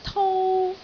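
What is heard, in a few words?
"tou1", Cantonese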